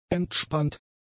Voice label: "entspannt", German; adjectives